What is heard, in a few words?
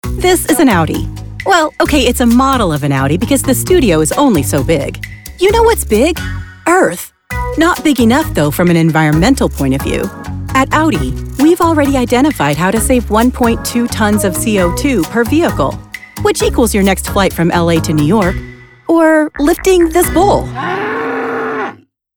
spot; Audi